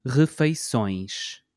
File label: "refeições", portuguese